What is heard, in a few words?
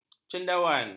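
"cendawan", Malay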